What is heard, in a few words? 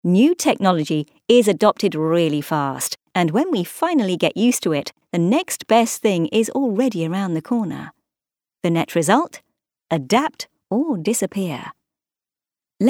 Corporate